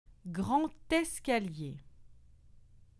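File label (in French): "grand escalier", French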